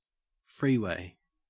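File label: "freeway", Australian English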